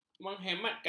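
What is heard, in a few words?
"menghematkan", Malay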